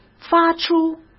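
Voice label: "发出", Mandarin Chinese; hsk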